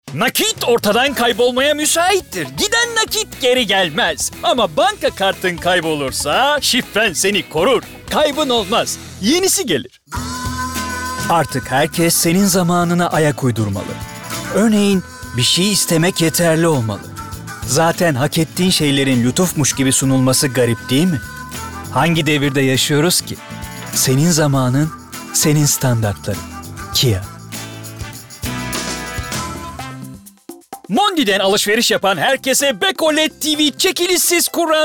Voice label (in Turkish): Reklam